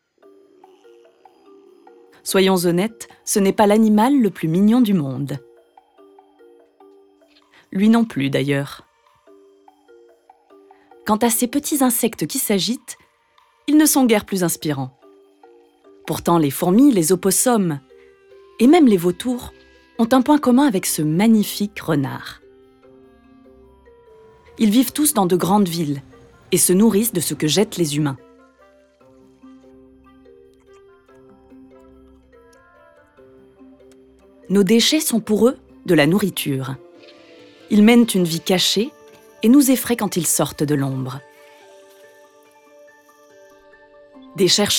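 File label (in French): VOICE OVER - Documentaire, comédienne; Growing up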